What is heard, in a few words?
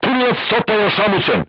voice message driver contused, crew tanks serbian